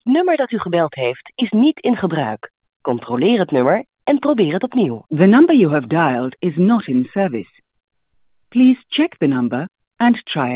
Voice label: Netherlands Incorrect number; T-Mobile